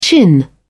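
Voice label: "chin", English, British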